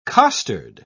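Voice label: "costard", British English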